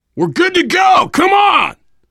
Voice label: path clear, voice, biker; Left 4 Dead 2; survivor